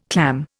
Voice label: "clam", Indian